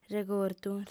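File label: "regordu", female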